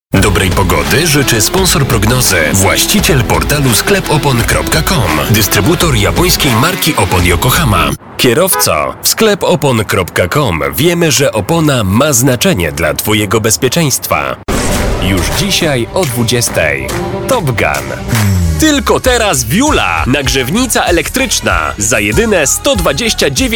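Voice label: Polish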